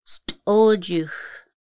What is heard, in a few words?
Medicine "st̕əlǰixʷ", Lushootseed; traditional foods and healing